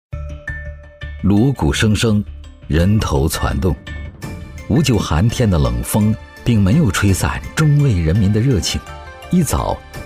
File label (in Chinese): 男 纪录片 浑厚讲解; 中卫山羊; 高端内涵 大气浑厚